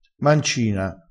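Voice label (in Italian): "mancina", dialettale crespinese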